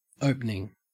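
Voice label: "opening", Australian English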